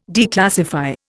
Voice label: "declassify", Indian